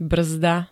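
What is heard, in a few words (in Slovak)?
"brzda", Slovak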